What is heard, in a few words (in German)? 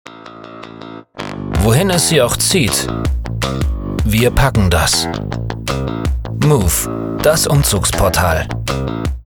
Werbung Umzugsportal